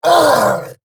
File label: Death, en; Warframe; Eidolon Grineer; Battle Barks